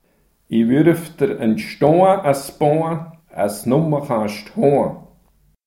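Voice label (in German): Sax Spruch, Sennwalder Dialekte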